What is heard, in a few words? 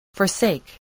"forsake", English voice, spelling